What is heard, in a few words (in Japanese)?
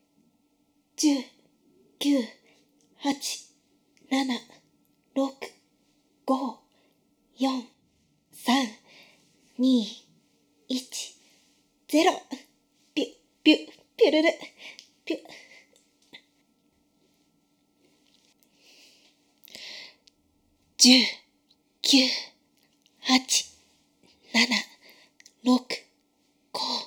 カウントダウンボイス ステレオ